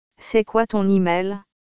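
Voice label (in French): "C est quoi ton email", French; grammaire; questions pour faire connaissance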